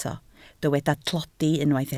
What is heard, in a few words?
"tlodi", Welsh